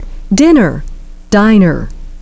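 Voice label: dinner diner, English pronunciation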